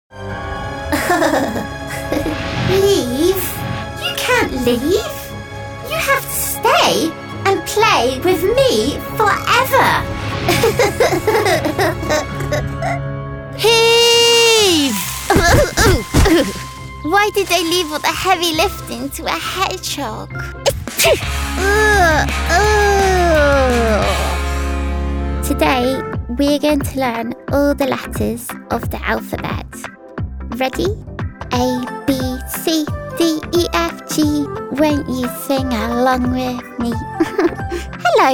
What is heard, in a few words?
female english animation compilation; english southern accents